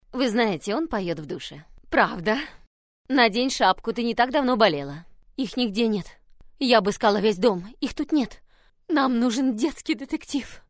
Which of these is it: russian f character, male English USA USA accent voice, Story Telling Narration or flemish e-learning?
russian f character